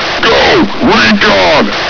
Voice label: follow me, radio; cstrike; csof